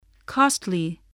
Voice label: "costly", voice spelling